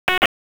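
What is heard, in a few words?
EMS DISP, radio; wake; p25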